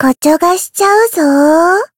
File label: encount, jp; yukino